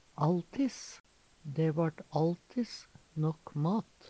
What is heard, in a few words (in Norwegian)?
"alltiss", Numedalsmål; Dæ vart alltiss nåkk mat.; alltids; Adverb